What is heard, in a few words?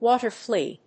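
"Water Flea", English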